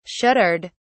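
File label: "shuddered", British English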